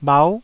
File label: "bhau9", taiwanese